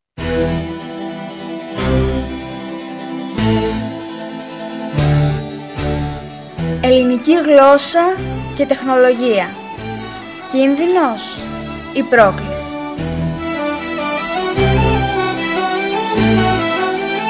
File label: radio spot